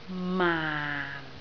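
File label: "maan4", Cantonese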